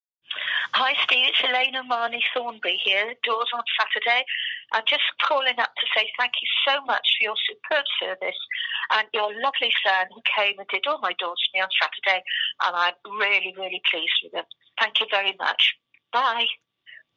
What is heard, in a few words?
audio testimonial